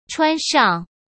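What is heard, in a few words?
"穿上", Mandarin Chinese; chinese-flashcards; hsk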